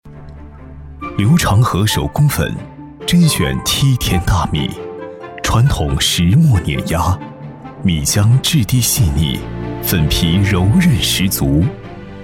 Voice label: 男 纪录片 舌尖感觉; 手工粉; 男171-纪录片《手工粉》-舌尖感觉

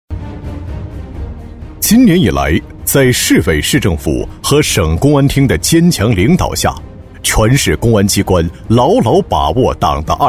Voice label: 男 公安专题 硬朗力度; 大气主流; 大气浑厚

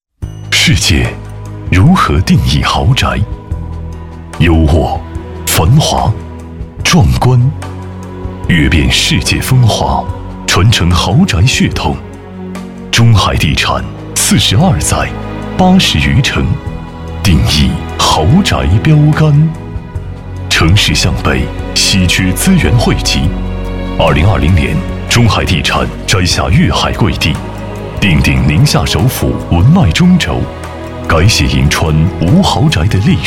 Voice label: 男 地产宣传 磁性质感; 男98-磁性品质 质感磁性; 中海九樾

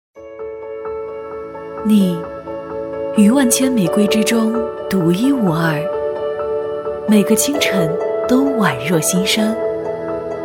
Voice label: 女 广告配音 年轻; 兰蔻菁纯

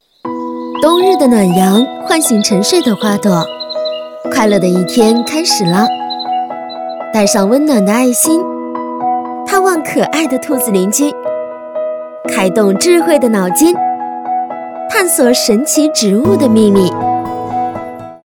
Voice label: 女, 幼儿园宣传, 轻快明亮; 轻松活泼; 五幼

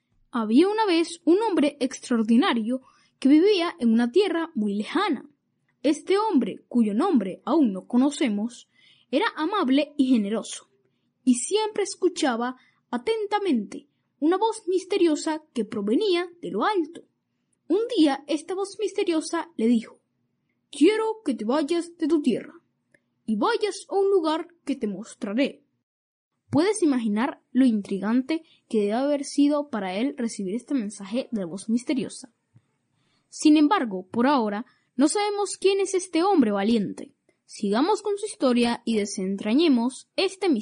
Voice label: 西班牙语男童 hard

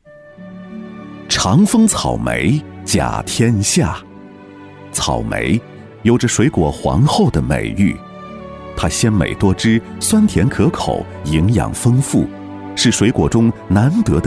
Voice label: 男 宣传片 大气抒情; 长丰草莓甲天下

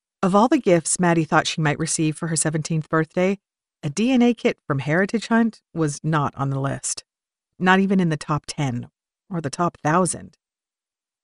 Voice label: 美式女声

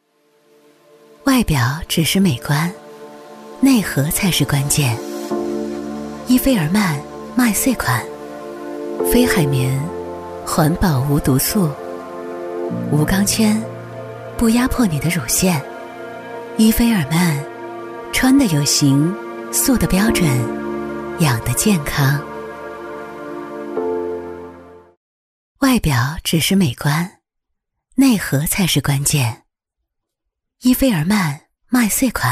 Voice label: 女 TVC内衣; 依菲儿曼

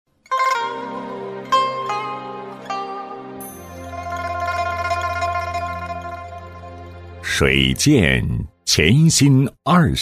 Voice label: 男 古诗朗诵

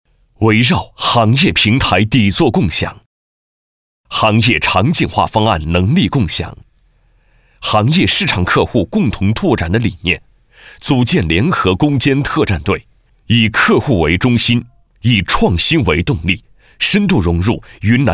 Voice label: 男 项目解说 技术方案 沉稳解说

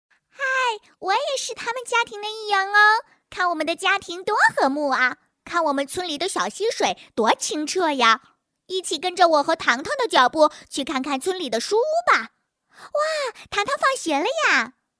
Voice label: 女 童声 卡通形象 女童 精灵古怪

